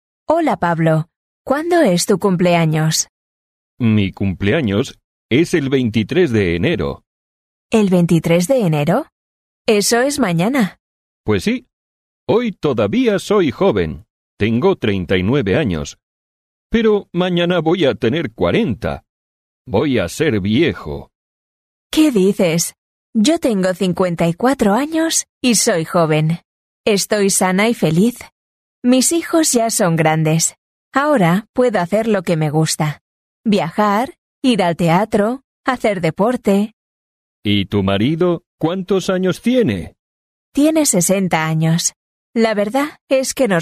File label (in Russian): urok dialog